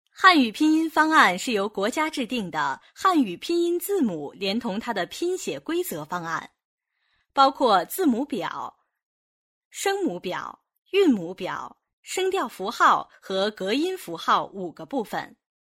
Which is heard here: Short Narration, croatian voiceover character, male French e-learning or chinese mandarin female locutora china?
chinese mandarin female locutora china